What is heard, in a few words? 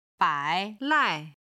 "bai3 lai4", Chinese